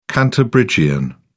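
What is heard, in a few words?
"cantabrigian", British English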